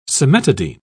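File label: "cimetidine", British English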